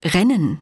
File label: german run; samsung YP-U5; Audio User Interface